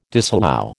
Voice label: "disallow", American

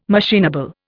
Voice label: "machinable", Indian

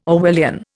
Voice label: "Orwellian", Indian

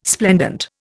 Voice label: "splendent", Indian

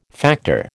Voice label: "factor", American

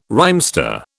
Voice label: "rhymester", British

